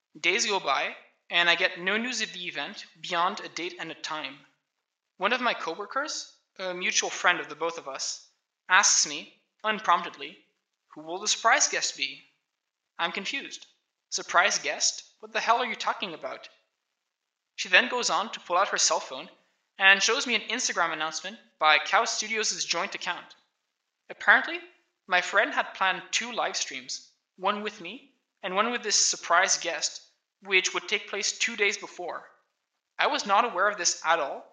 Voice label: voice, narration